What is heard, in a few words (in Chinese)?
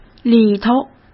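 "里头", Mandarin Chinese; hsk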